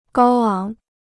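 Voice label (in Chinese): "高昂" Chinese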